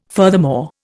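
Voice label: "furthermore", Indian English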